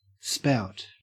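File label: "spout", Australian English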